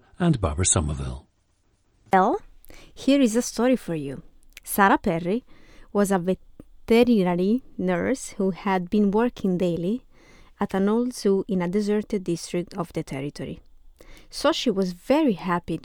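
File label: italy, International Dialects of English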